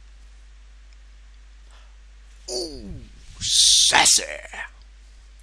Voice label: sassy

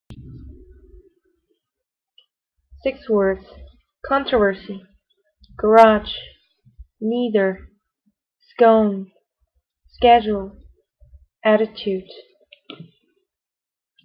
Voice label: seoul korea female

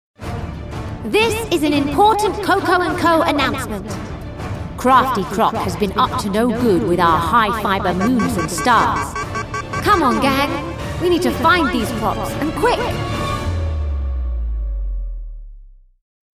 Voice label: voiceover

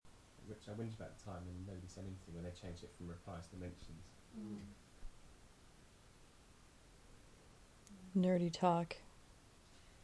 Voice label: random chatter

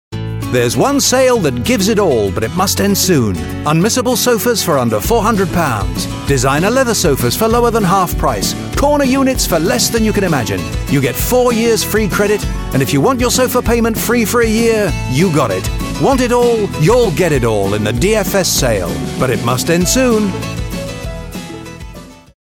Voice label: advert; dfs

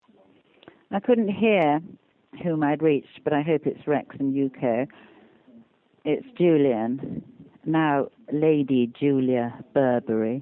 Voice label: weird skype message

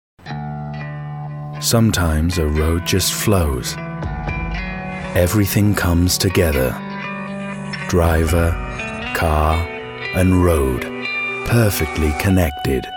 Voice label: advert jaguar

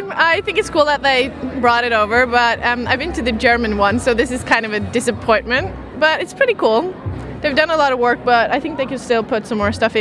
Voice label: Christmas market vox pops

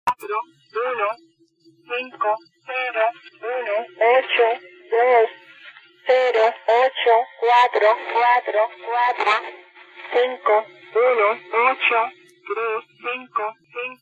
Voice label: cuban numbers station on 11530khz; on 2255 utc

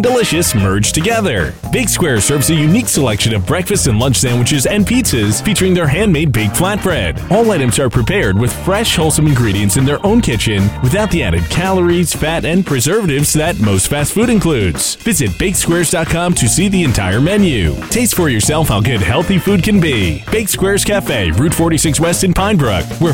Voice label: radio commercial falafel

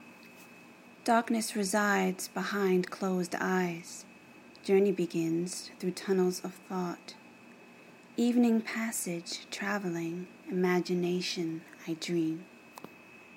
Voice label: spoken word evening passage

